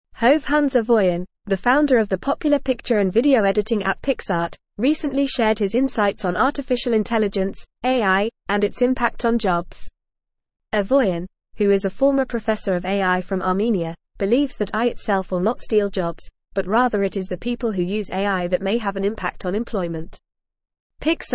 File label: English Advanced FAST Reading; AI A Tool to Enhance Human Capabilities